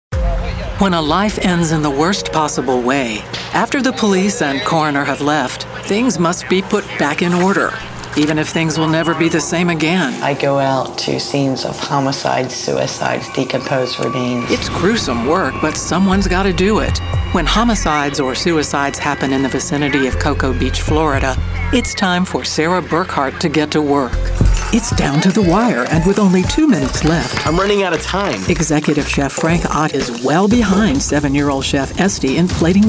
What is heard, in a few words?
TV Narration